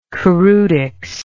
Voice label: "choreutics", English

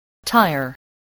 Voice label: "tyre", English spelling voice

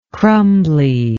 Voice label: "crumbliness", english

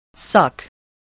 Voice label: "suck", spelling

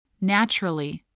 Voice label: "naturally", voice

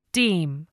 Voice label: "deem", English

